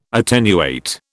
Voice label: "attenuate", British

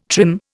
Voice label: "trim", Indian

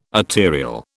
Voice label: "arterial", British

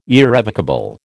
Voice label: "irrevocable", American

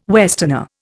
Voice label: "westerner", Indian

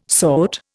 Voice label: "sort", Indian

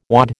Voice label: "wad", American

